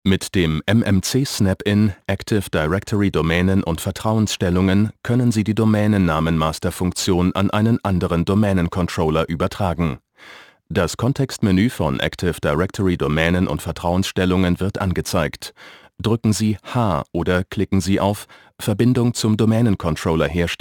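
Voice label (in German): imagefilm, deutsch männer; sprecher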